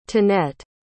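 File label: "tonette", English word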